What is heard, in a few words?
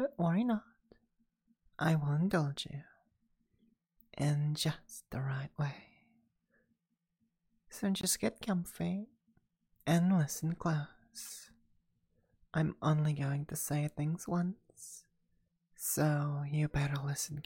soft brainwashing hypnosis